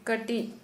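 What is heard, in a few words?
Santali female little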